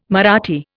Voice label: "Marathi", Indian